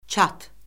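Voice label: "çat", Azerbaijani; Orfoqrafiya Lüğəti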